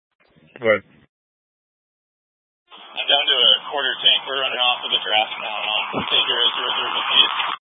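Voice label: Incident Tactical 2, Scanner audio recording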